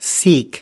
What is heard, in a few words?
"seek", us